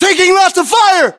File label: US inform suppressed generic, battlechatter, english